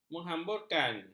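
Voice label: "menghamburkan", Malay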